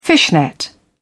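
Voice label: "fishnet", British English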